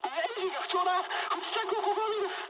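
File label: Japanese damaged engine mood high; War Thunder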